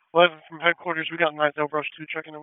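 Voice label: FIRE Operations, p25; FIRE OPS 2; wake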